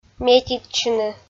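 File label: "метитчыны", Komi, female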